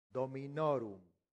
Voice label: "dominorum", latin; santillana

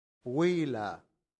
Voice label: "villa", latin; santillana

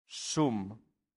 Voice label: "sum", latin; santillana

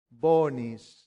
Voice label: "bonis", latin; santillana

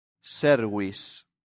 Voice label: "servis", latin; santillana

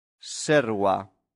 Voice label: "serva", latin; santillana

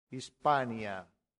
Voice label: "hispania", latin; santillana

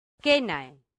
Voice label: "cenae", latin; santillana

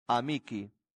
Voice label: "amici", latin; santillana

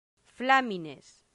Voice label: "flamines", Latin; santillana

